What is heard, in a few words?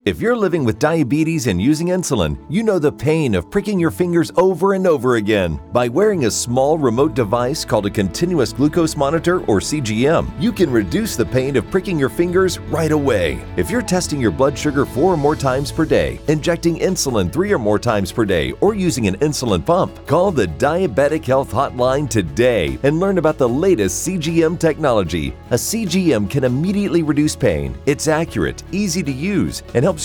RADIO